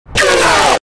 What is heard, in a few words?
get out scary